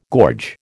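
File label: "gorge", American English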